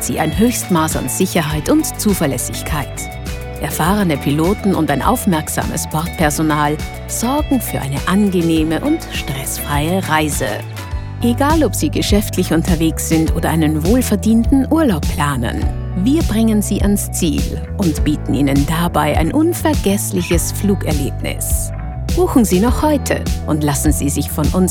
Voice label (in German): Werbung Airline